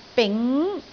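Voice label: "bing2", Cantonese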